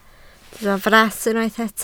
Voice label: "fras", Welsh